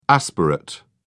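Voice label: "aspirate", English, British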